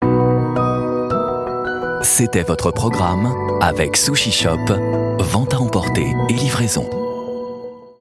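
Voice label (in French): elegant, voix off parrainage, homme; SUSHI SHOP doux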